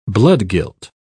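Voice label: "bloodguilt", British English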